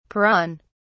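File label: "peron", British English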